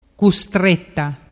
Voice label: "custretta", dialettu